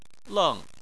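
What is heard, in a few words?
"leng", Chinese, fourth tone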